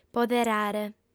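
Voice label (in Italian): "poderare", lingua sarda, fem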